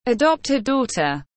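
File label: "Adopted daughter", English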